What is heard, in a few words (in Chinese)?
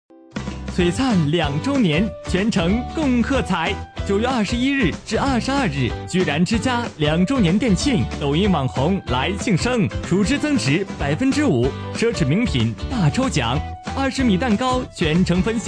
男 广告; 居然之家